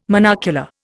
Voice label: "monocular", Indian